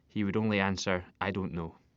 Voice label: scottish